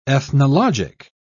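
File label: "ethnologic", British English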